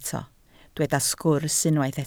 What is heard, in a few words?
"sgwrs", Welsh